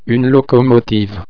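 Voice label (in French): "locomotive", French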